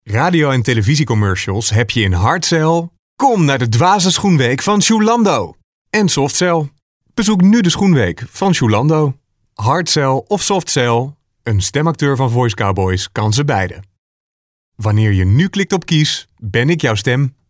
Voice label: commercial